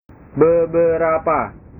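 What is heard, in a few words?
"beberapa", Indonesian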